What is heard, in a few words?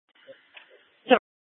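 police dispatch, Scottsdale PD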